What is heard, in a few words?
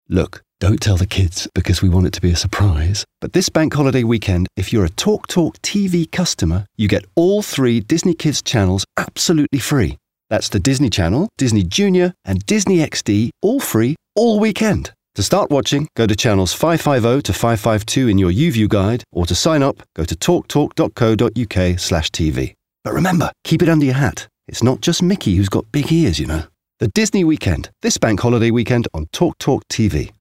Commercial; TalkTalk